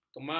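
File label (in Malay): "kemarau", Malay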